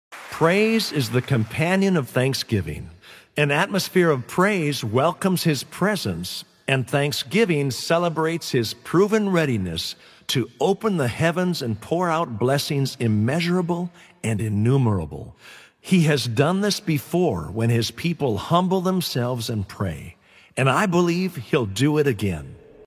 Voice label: Narration